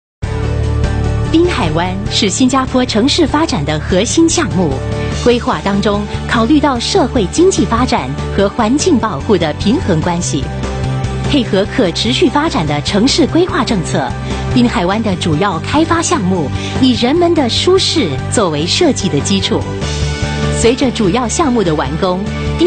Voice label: Mandarin Corporate, China Female; Marina Bay; URA